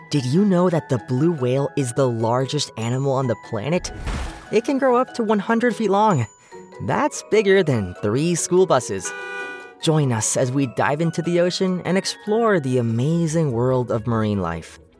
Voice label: Marine Life Explainer Teacher Compassionate Friendly Educational; male voiceover